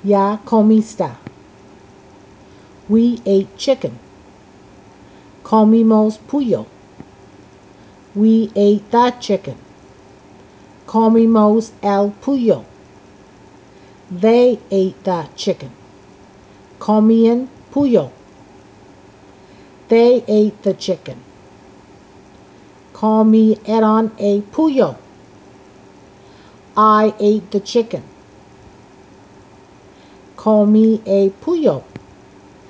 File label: spanish verb eat; spanish verbs